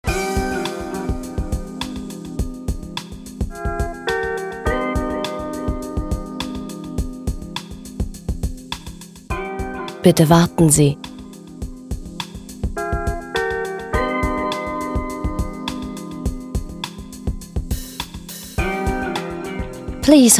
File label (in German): Warteschleife